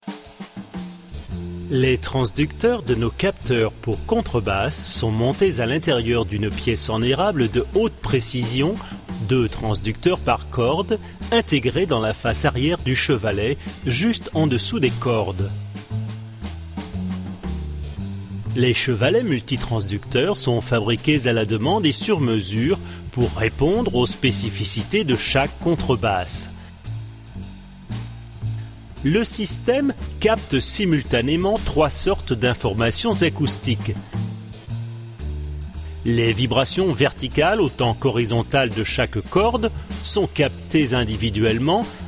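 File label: Technical, male voice over, French; Technikpräsentationen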